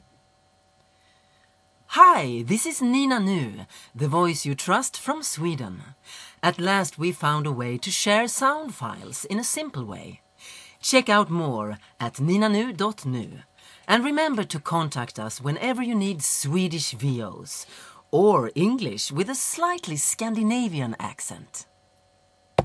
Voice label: swedish female voiceover

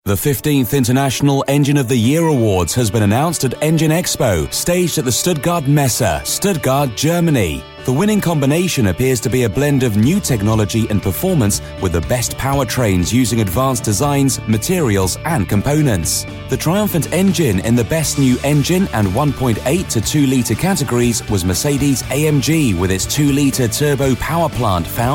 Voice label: corporate narration; mercedes-benz

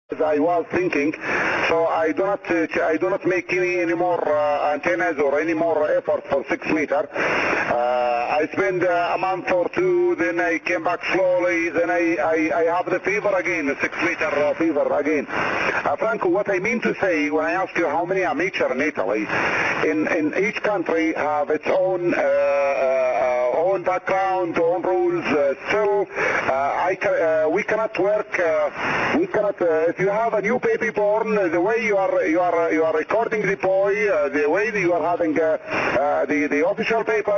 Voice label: 6m ssb

